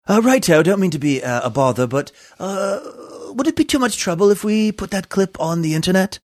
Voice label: saying oh right o dont mean to be a bother snooty british accent